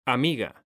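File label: "amiga", Spanish, male; mekanta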